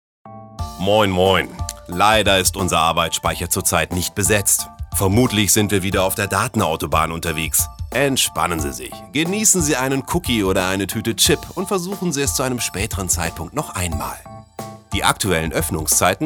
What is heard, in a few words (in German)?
Tel Ansagen serioes; Prisma2